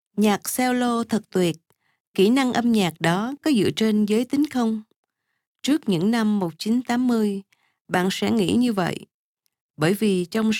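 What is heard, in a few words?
Viet, elearning, Vietnamese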